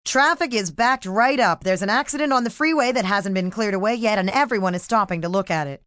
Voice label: Traffic Backup F, Broadcast, traffic report, female, traffic backed up